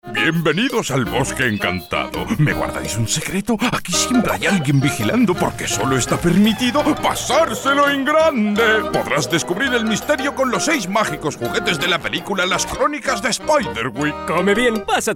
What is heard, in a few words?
male spanish cartoon comercial; ideasonora